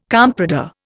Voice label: "comprador", Indian

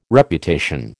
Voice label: "Reputation", American

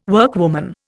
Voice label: "workwoman", Indian

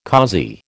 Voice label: "causey", American

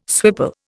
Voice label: "swipple", Indian

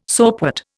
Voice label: "soapwort", Indian

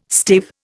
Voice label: "stave", Indian

